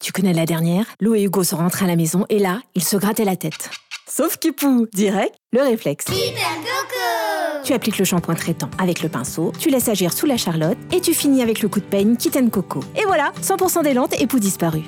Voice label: PUB RADIO; Coco

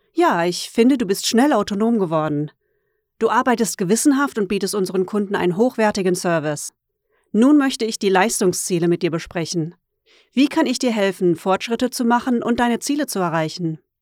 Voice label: voix off

